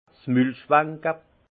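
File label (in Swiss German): "mul", alsace Haut-Rhin; vin; rincer la bouche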